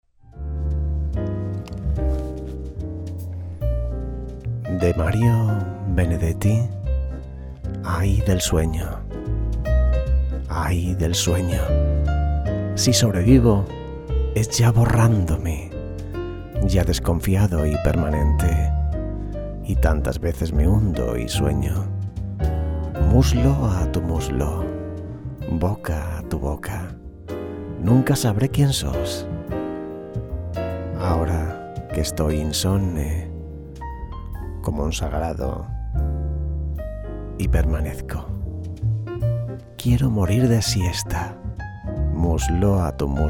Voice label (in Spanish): poema romantico; benedetti; ay del sueno